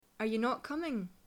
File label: Scots, accents of english; Are you not coming?; Aren’t you coming?